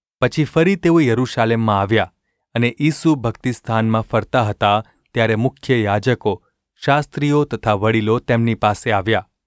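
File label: gu ulb mrk, Gujarati; mrk 11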